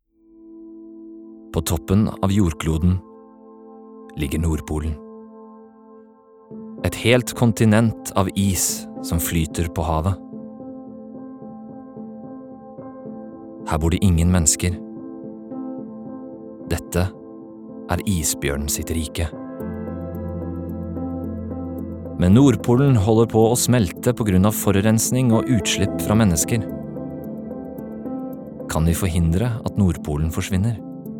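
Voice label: Norwegian documentary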